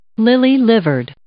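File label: "lily-livered", English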